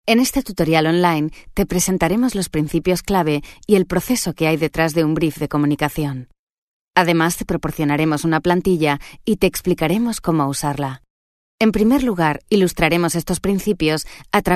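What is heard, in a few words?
Spanish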